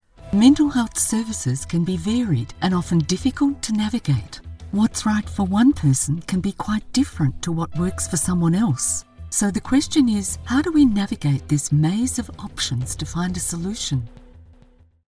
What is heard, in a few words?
Explainer; Bigmouth